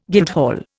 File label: "guildhall", Indian